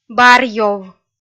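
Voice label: "барьёв", Komi, female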